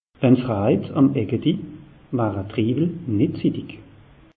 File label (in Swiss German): "aegidi", alsace; meteo